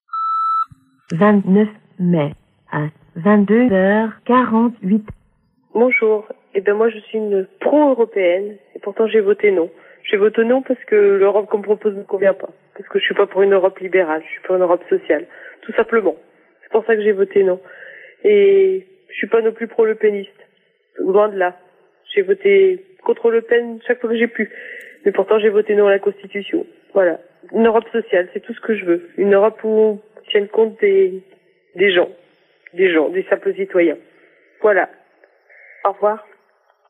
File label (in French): repondeur; Victoire du Non